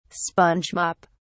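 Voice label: "sponge mop", British English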